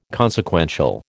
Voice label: "consequential", American